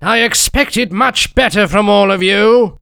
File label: jeers